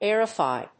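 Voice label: "aerify", English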